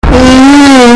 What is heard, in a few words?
heinnn bass boosted